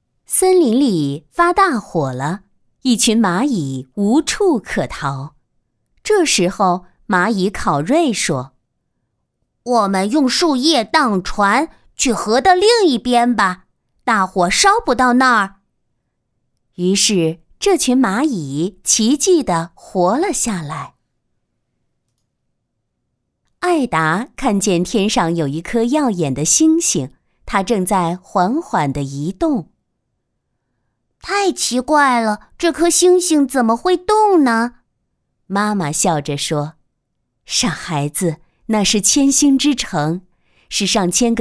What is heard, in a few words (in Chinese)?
女 儿童故读物